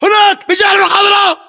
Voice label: landmark near vangreen, localized english battlechatter